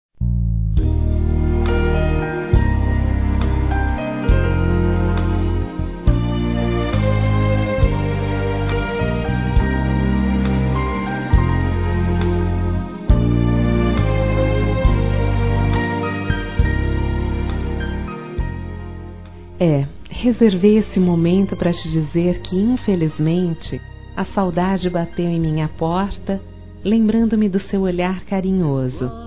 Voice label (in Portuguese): Amizade, fem; Telemensagens; Saudades